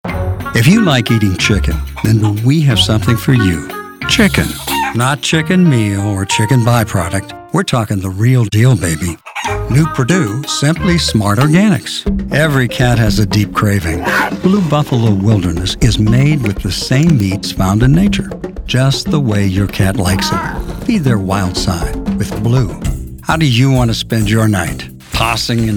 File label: Commercial